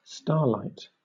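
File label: "starlight", British English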